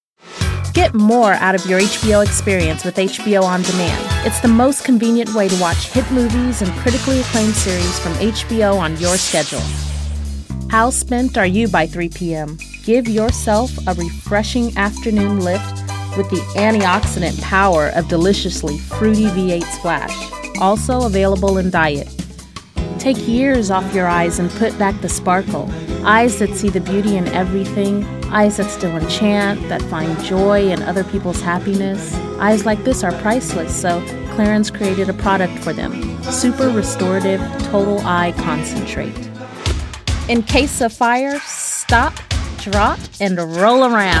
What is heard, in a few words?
Eng, english females